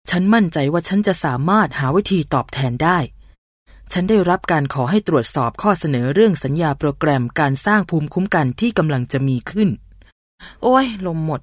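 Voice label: Thai, female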